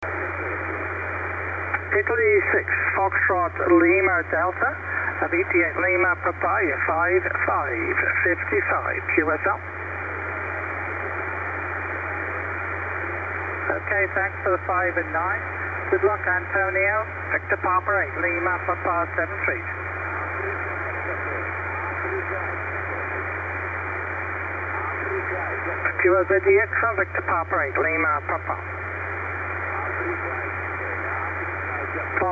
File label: 10m ssb